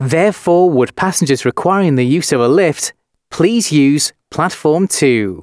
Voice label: announcements male